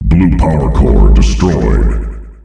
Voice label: "Blue Powercore destroyed", announcer male; Unreal Tournament; ut2004